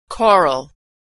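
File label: "choral", American English; Homophones; choral coral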